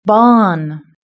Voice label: "barn", british english